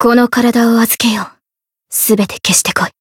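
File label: Voice variable chant, Japanese; suzette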